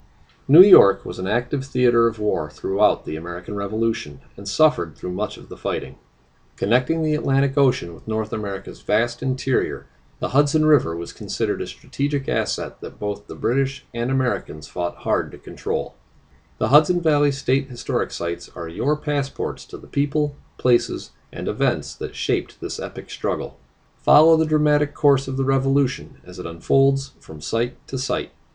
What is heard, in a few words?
Military Intro English